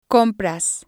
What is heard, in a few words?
"compras", spanish